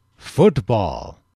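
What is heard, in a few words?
"football", English, male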